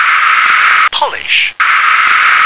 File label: "polish", English